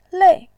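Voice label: "lei4", Mandarin Chinese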